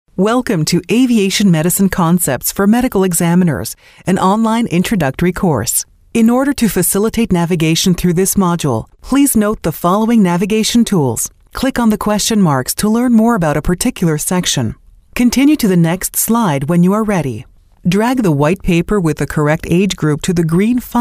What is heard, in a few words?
e-learning English